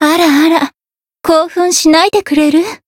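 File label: Voice encounter fear, Japanese; ewella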